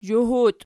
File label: "zhuhut", Archi